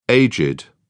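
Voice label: "aged", British English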